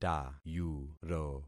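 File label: "tayuro", concatenated syllables; Affixing experiment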